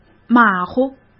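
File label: "马虎", Mandarin Chinese; hsk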